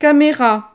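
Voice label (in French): "camera", French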